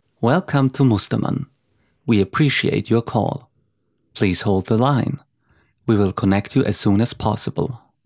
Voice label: English